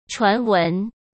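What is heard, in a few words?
"传闻", Mandarin Chinese; chinese flashcards; hsk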